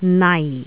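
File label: "nai4", Cantonese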